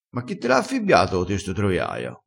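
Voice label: "affibbia", Italian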